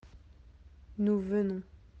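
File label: "venir", French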